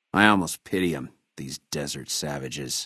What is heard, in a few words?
male adult greeting; FNV